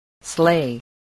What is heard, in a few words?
"slay", voice, spelling